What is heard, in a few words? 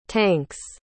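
"tanks", English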